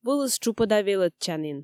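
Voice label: "вылыс тшупӧда велӧдчанін", Komi, female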